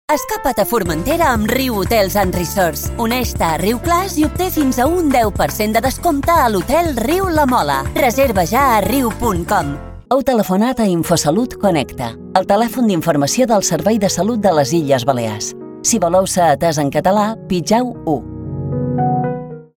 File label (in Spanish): Mallorquin Espana Femenina Adulta